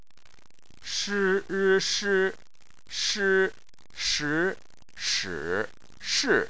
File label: "shi", chinese